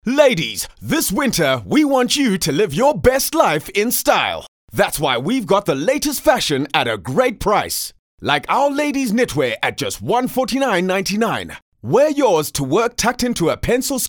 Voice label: English Hardsell; OSM Talent